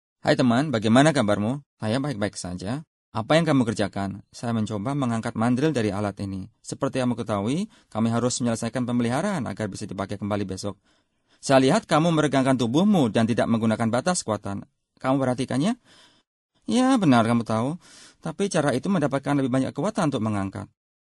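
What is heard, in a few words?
Indonesian, male, various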